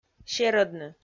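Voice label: "серӧдны", Komi, female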